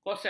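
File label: "kosel", Malay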